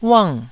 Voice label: "uang4", taiwanese Syllables